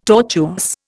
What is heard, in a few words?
"tortuous", Indian